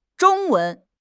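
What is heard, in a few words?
"Zhong1 wen2", Chinese; HSK3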